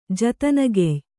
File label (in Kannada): "ಜತನಗೆಯ್", Kannada